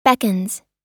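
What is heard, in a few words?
"beckons", US English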